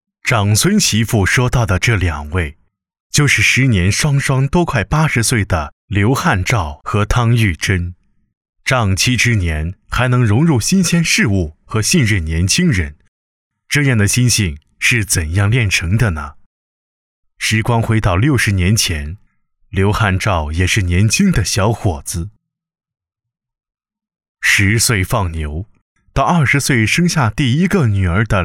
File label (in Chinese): 男 讲述 专题; 刘氏家族